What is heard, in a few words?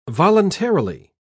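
"voluntarily", British English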